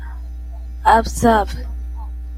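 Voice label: "absorb", Japanese female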